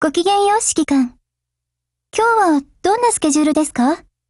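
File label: HELLO Japanese, Voice; GF Alarm; Ak5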